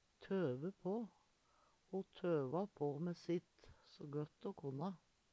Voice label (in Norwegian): "tøve på", Numedalsmål